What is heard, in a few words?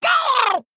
Male Murloc Attack